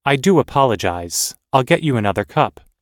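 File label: everyday apologizing, American English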